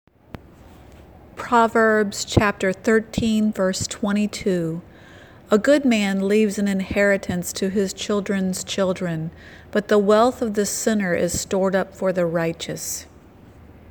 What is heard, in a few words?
Childrens Bible Verse